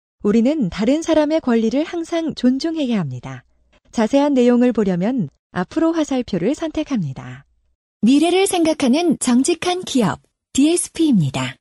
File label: Korean Voice Sample